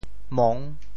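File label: "mong6", Teochew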